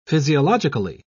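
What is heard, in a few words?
"physiologically", British English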